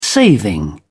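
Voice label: "saving", English, British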